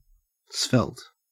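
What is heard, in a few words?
"svelte", Australian English; pronunciations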